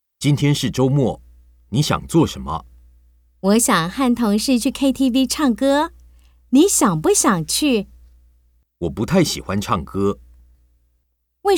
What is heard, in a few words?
Dialogue, Chinese